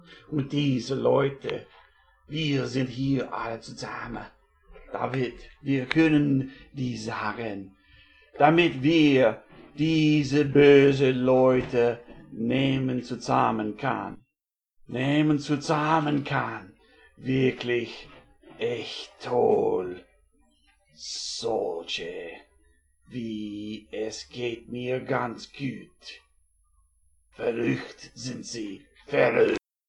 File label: German Cultist Incantation